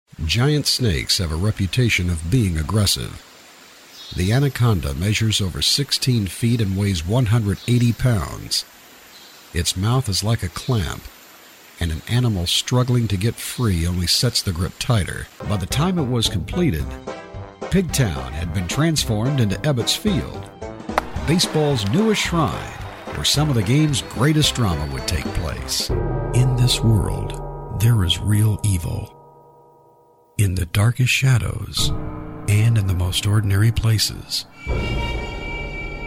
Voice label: Documentary